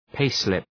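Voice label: "payslip", English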